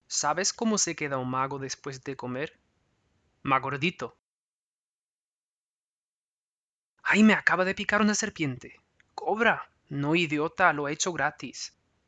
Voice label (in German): Stimmprobe Spanisch